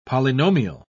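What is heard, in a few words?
"polynomial", British English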